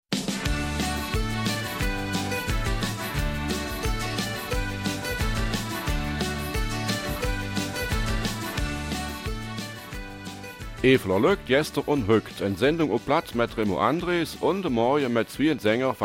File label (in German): Eifeler Mundart; Gib einem Kind deine Hand